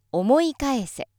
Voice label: female